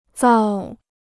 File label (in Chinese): "造", Chinese